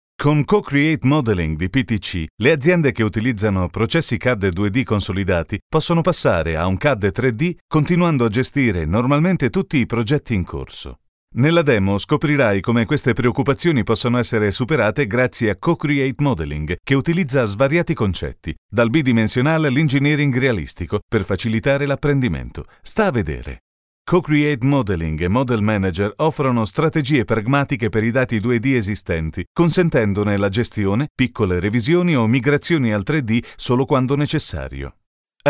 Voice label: Corporate ita